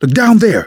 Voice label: context modifier calm, survivor voice manager; Left 4 Dead 2; left4dead2 dlc3